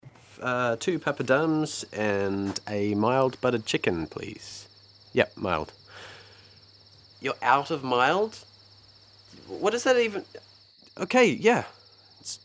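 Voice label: Accent New Zealand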